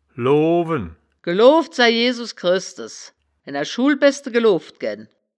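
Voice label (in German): "loowen", wittlicher woerterbuch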